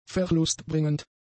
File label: "verlustbringend", German; adjectives